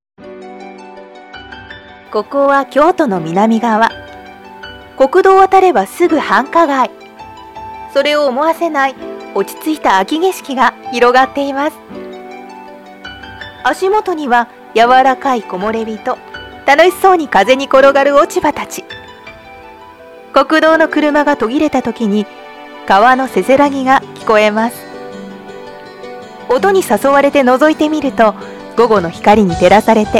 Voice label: voice naration